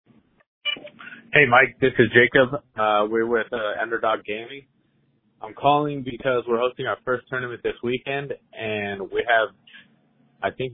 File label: voicemail